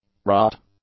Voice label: "rut", English; nouns